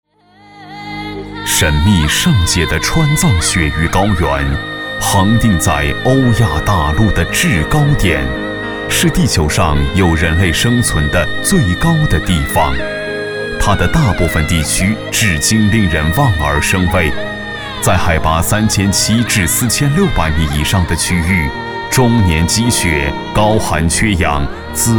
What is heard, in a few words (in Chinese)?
纪录片 人文历史 冬虫夏草